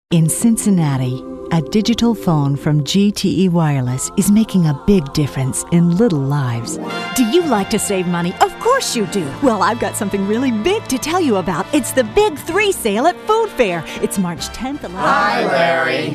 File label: English, females